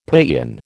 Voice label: "paeon", American